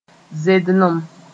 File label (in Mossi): "zendlem", moore